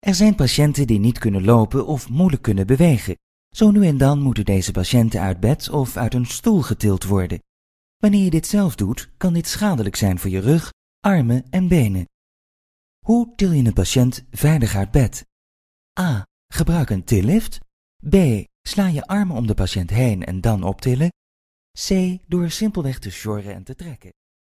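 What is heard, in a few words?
Dutch, female, elearning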